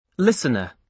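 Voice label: "listener", British English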